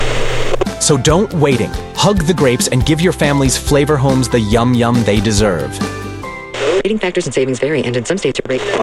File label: Grapes Commercial